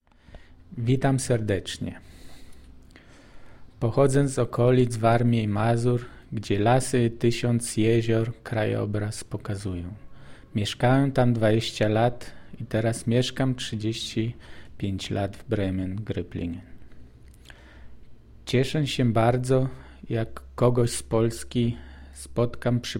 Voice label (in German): Polnisch